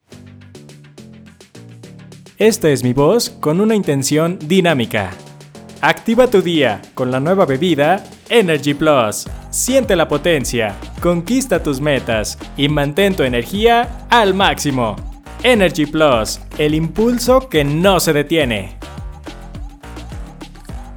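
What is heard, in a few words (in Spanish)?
Dinámico Musicalizado; locutor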